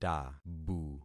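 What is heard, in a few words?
"tabu", concatenated syllables; Affixing experiment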